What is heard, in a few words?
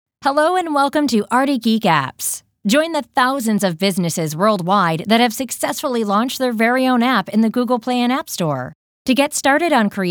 IVR